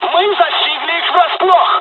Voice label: japanese first wave, dialogs russian; War Thunder

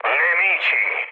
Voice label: enemy detected mood low, speech it; War Thunder

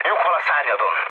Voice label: right wing, mood low, speech hun; War Thunder

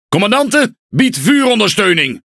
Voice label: NL Speech Quick Commands Need Support; World Of Warships

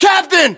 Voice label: rank captain, us

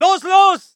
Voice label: voice ger infantry; Red Orchestra 41-45

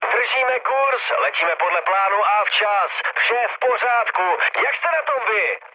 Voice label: chat, speech Czech; War Thunder

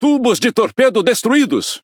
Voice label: Speech Defective Modules Torpedo Destroyed, PT BR; World Of Warships

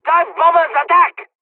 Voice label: japanese first wave, dialogs english; War Thunder

